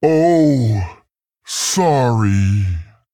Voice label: Male Tauren Sorry

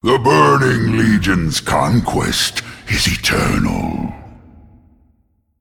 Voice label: Male Demon

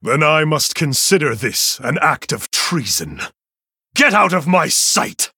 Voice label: Male Human Victory

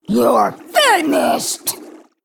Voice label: Female Jinyu Attack

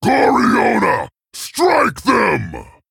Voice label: Male Tauren Attack; Blackhorn

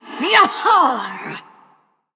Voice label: Female Ghost Play

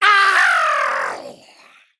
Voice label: Male Demon Death

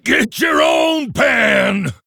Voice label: Orc Emote Attack; Gold Panner

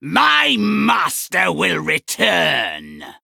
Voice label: Male Human Play

